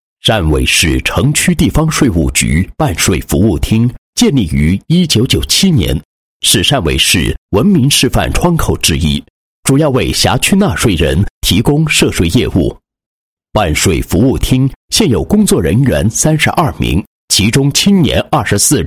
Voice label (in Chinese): 男-地税局-城区地税配音稿, 配音案例